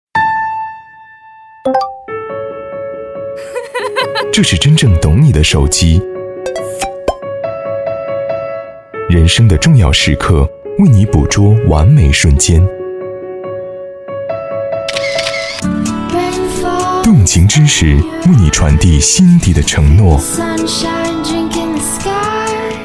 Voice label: 广告品牌类; 三星盖乐世

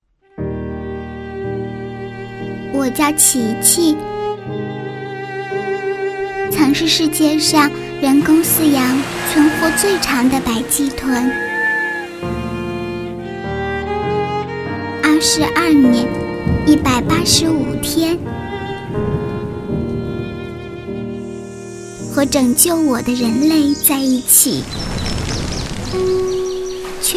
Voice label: 悲伤; 白暨豚

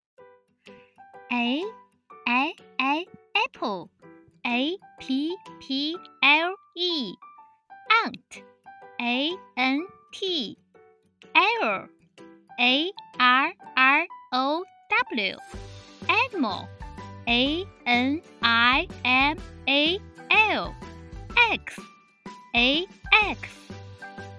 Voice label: 英语 配音

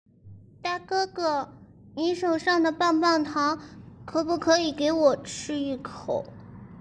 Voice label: 小学女孩

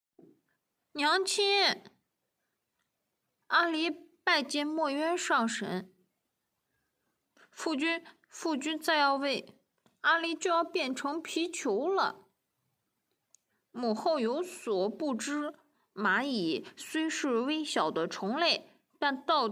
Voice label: 小孩音

